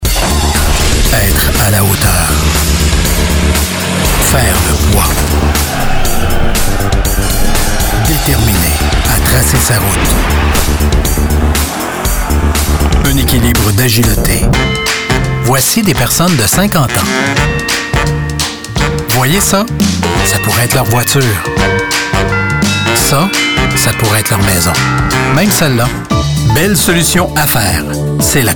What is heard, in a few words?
French Canadian commercial